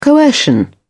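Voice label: "coercion", English, British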